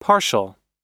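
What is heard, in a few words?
"partial", American English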